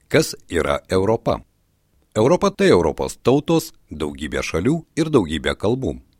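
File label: Lithuanian, male, corporate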